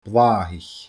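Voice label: "blàthaich", Scottish Gaelic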